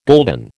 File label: "gulden", American